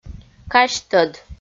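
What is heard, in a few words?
"казьтӧд", Komi, female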